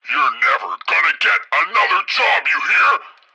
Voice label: tutorial chopper; midwave; Blind Drive